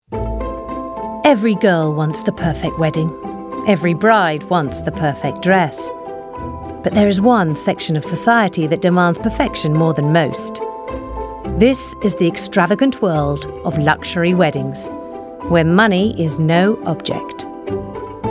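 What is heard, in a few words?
documentary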